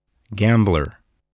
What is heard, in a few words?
"gambler", voice, English